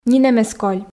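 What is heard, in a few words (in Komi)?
нинӧм эз коль, Komi, female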